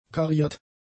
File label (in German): "kariert", German; adjectives